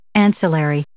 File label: "ancillary", English